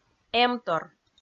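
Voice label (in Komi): "эмтор", Komi, female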